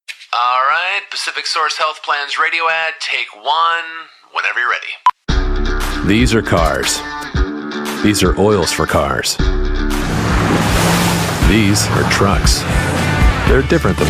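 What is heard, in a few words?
M EN US commercial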